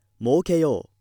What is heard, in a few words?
male